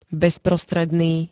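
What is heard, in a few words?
"bezprostredný", Slovak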